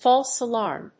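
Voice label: "false alarm", English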